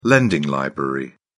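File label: "lending library", British English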